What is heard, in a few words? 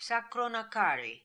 "Sakronakari", pronounciations; Fourth Way; Beelzebub